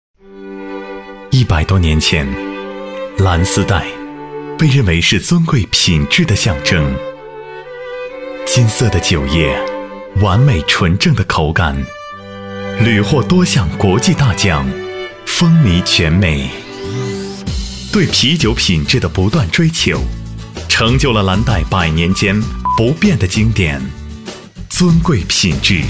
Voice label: 男 沉稳内敛 温柔; 蓝带啤酒

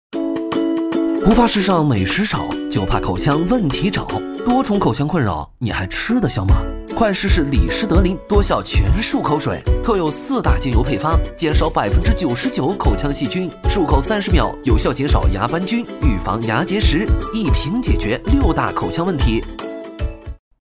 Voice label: 男 活泼 轻快 促销; 李施德林涑口水

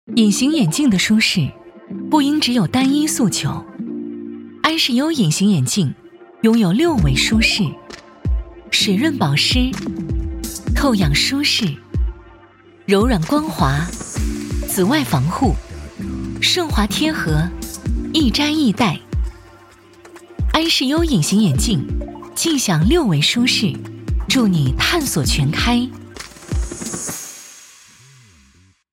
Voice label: 女 广告 知性 干练; 隐形眼镜

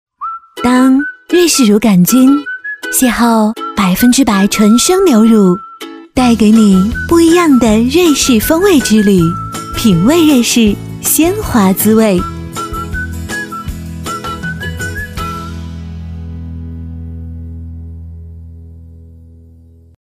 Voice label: 女 广告; 瑞士酸奶

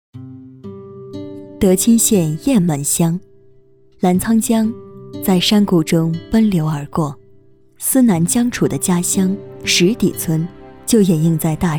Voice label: 女 专题纪录片类; 非遗进校园

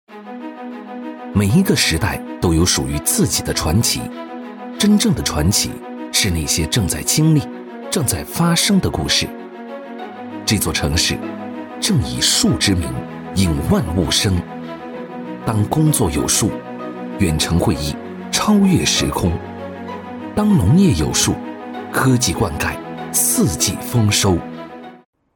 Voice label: 男 宣传片; 数字之都

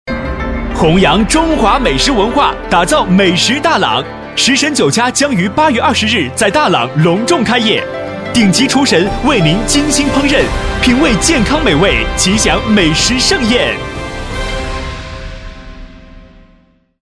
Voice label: 男

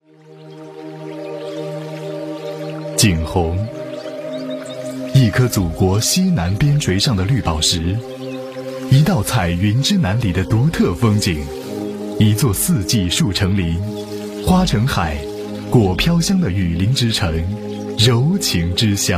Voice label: 男 城市宣传 民族 温情 成熟; 景洪

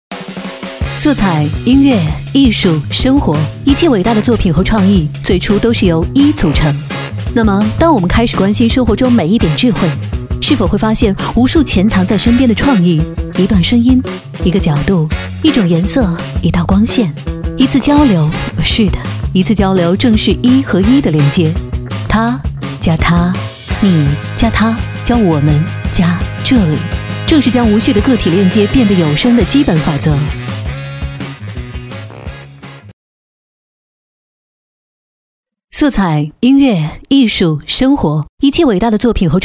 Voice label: 女; 创意设计师

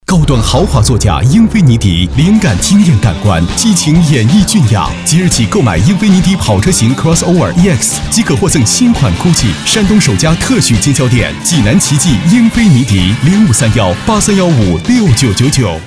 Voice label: 男; 英菲尼迪

